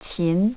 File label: "qin", chinese pronunciation; 秦; 221-206 BCE